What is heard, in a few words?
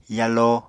"yalo", Apatani